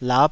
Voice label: "lap5", taiwanese syllables